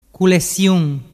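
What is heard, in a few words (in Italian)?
"culesiun", dialect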